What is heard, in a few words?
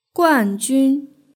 "guanjun", Chinese; hsk6